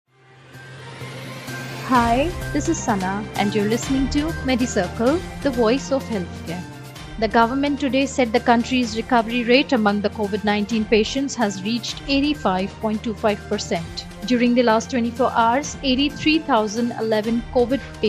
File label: english news